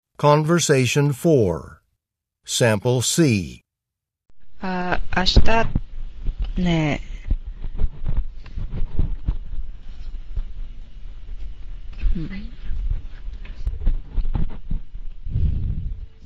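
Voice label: japanese conversation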